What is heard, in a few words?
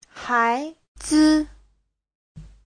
"hai2 zi5", chinese